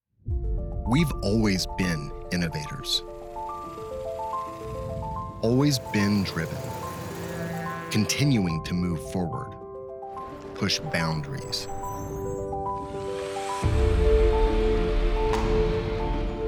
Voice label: Inspiring Articulate Corporate, Male American voice; voiceovers